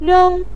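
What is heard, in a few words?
"reng", Mandarin Chinese, neutral tone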